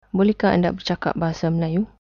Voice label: do you speak, malay

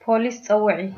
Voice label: police, tigrinya

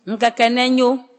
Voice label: have a nice day, ewe

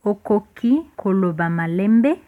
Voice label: slowly, lingala

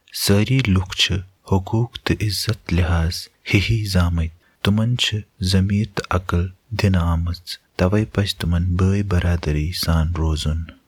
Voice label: udhr kashmiri

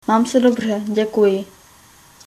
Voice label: fine, czech

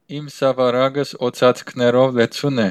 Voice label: hovercraft, armenian western

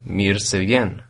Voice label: welcome, albanian

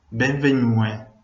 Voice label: welcome, venetian

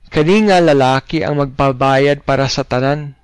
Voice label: pay, cebuano

